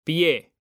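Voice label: "pie", Spanish, male; mekanta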